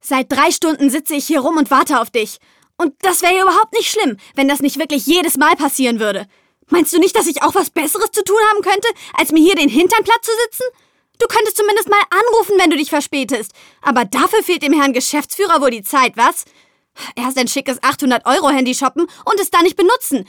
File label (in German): casting spiel aufgeregt